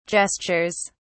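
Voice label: "gestures", British English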